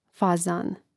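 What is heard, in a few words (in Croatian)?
"fazan", Croatian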